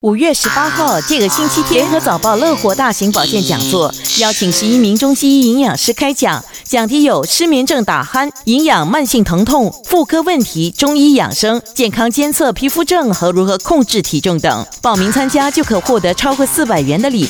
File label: Mandarin Trailer, Mandarin China Female; LOHAS Trailer